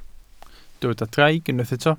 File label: "draig", Welsh